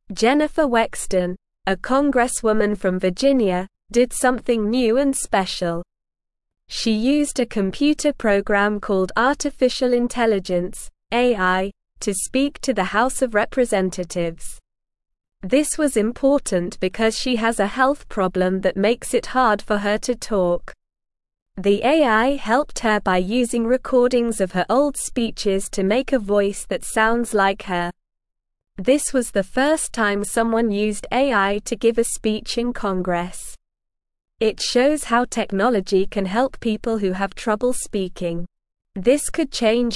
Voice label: English Lower Intermediate SLOW Reading; Jennifer Uses AI to Talk in Congress; English Newsroom